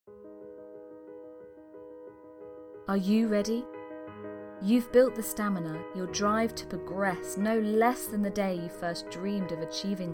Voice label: 外籍 英 女 英式 招聘宣传

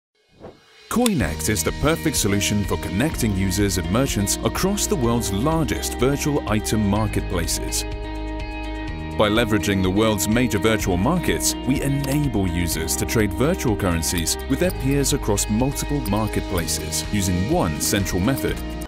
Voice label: 外籍英男 企业宣传

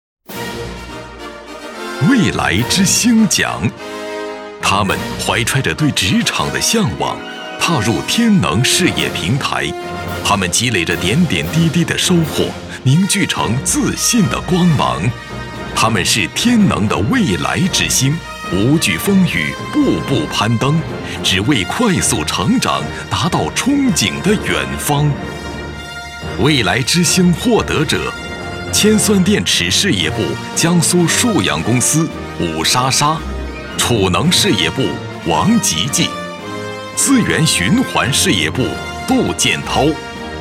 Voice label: 男,颁奖,企业类,先进个人,坚定,大气,自信

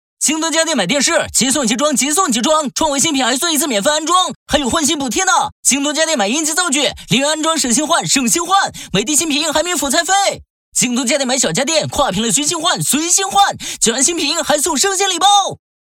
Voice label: 男 广告 家电; 京东家电4C

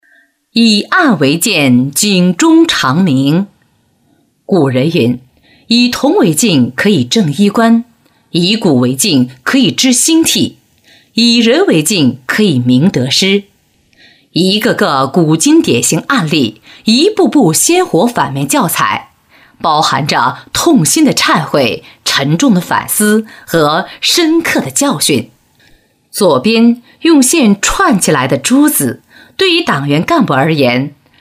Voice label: 女 专题纪委 严肃开阔 警钟长鸣 反面典型

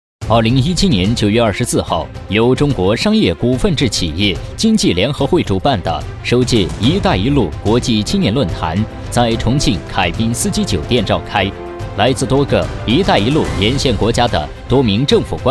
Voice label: 男 专题; 青年论坛一带一路

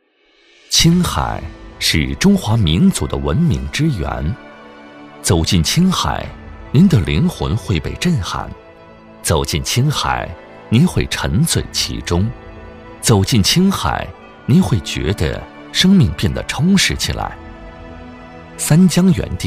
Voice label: 男 纪录片; 兰州

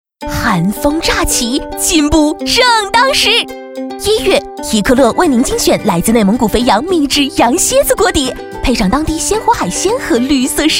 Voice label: 女 活泼欢快; 喜来登肥羊

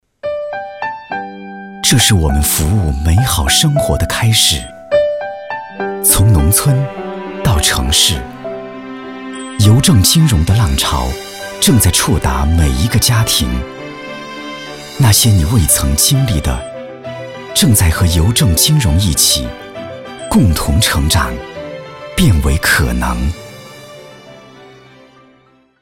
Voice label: 男 广告 青年温馨大气金融银行邮政宣传片

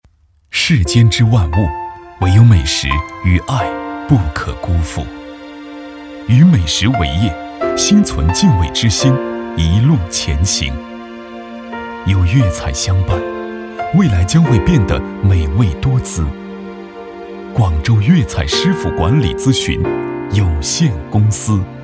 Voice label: 男 广告宣传片 粤菜

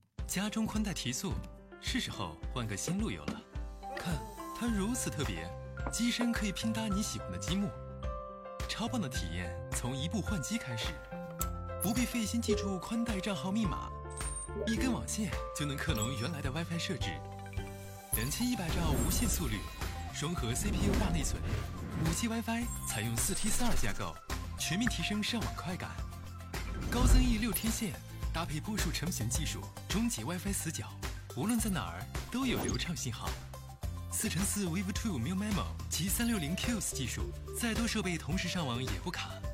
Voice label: 男 宣传片; 家庭防火墙5pro

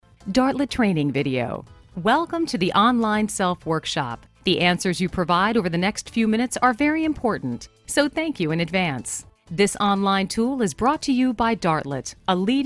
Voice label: 外籍英女 培训材料

